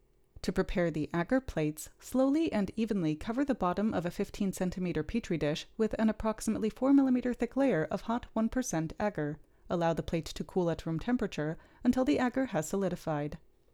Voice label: Gong Voiceover